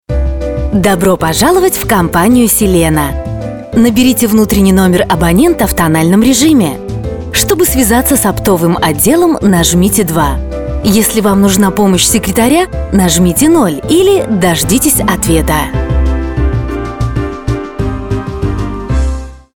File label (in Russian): Автоответчик, voices female; компании Селена